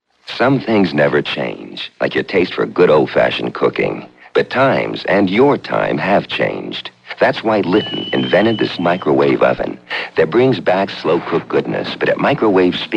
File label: Microwave TV spot; Commercials